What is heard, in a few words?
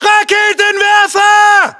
US threat rpg, battlechatter